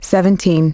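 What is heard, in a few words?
"seventeen", English; iOS 4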